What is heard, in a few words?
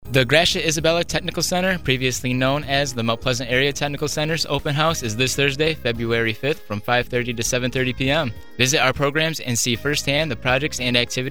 school store commercial